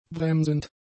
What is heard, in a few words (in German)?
"bremsend", German; adjectives